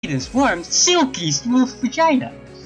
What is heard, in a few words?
silky smooth